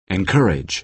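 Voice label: "encourage", American English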